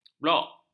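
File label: "blok", Malay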